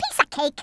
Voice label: "Flawless", Brooklyn; Worms Armageddon

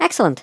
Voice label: "excellent", Irish; Worms Armageddon

